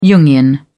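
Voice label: "jungian", British English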